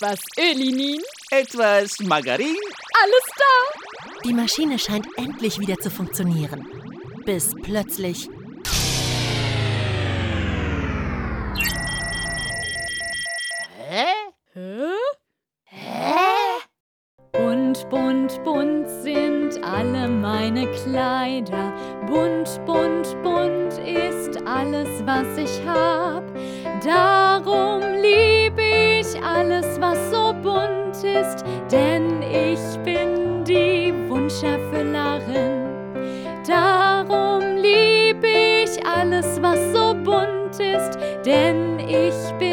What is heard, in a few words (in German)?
Gute Nacht Geschichte Die Wunschmaschine Hoerspiel; Kindertheater Zauberfloeckchen; Hoerprobe